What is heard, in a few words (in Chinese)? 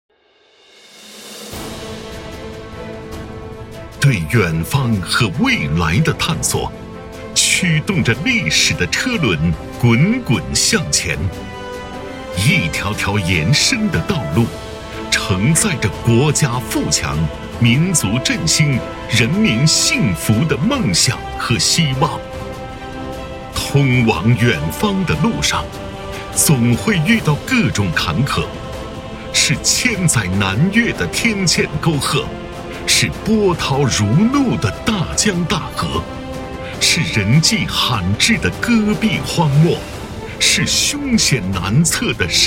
男, 宣传片; 志合者不以山海为远